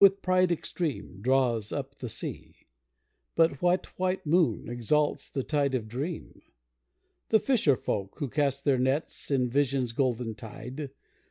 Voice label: poem

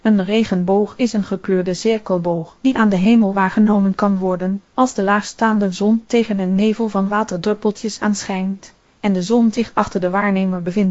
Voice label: speaker, nl NL

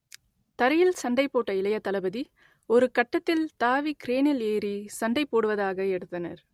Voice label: tamil

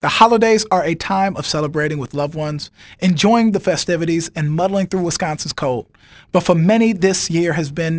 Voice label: Radio

